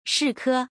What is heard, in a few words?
"shike", pinyin